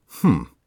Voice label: mutter, voice; Escape from Tarkov